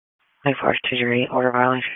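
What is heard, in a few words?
Police Dispatch; Wake Forest; p25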